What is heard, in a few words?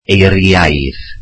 "snowy", Welsh